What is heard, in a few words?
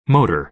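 "motor", American English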